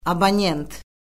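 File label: "abonent", Azerbaijani; Orfoqrafiya Lüğəti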